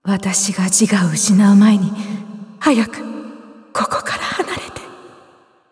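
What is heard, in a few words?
victory, Japanese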